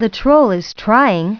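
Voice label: too high story narration; Putt Time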